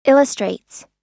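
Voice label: "illustrates", US English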